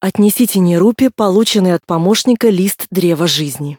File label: tutorial voice, ru; lineage2